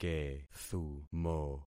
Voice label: "kethumo", concat syllables; Affixing experiment